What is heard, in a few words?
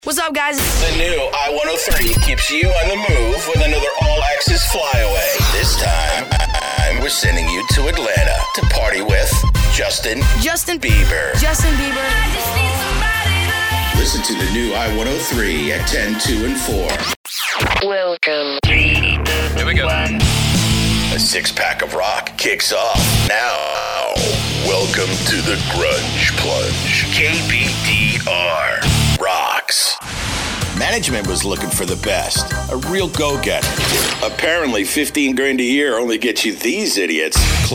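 Imaging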